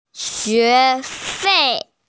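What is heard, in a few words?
"xue2fei4" Mandarin Chinese, female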